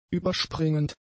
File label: "überspringend", German; adjectives